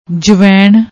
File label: "javain", Punjabi